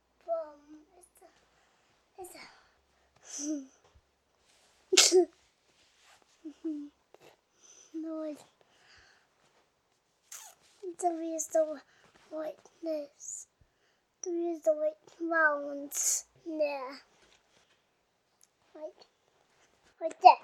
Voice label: Toddler Voice Random Chatter

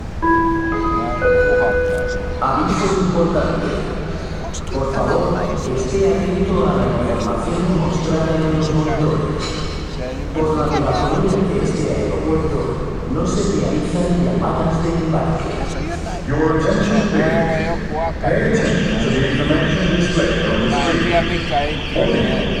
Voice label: Airport Calling

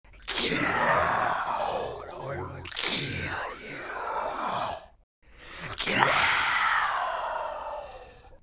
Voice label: Get Out Demonic Voice

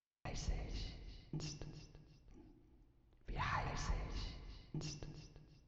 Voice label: Whisper

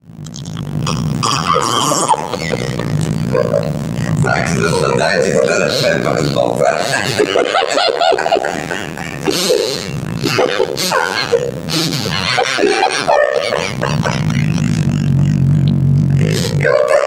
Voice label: Drunk Aliens

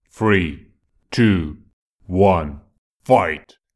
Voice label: 3 2 1 Fight Announcer Voice

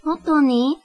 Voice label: "Really", Japanese

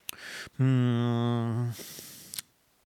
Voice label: Hmm Reflexion Male Voice Thinking

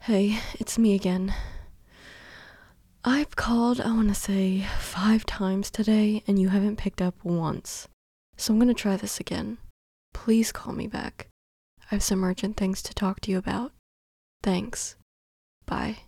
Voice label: Woman Leaving A Phone Message

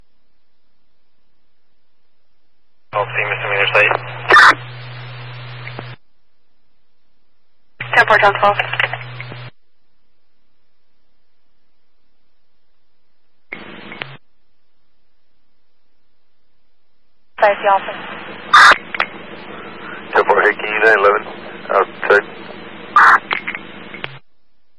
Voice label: Los Angeles South Bay Police And Fire Scanner

